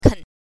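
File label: "ken", Mandarin Chinese, neutral tone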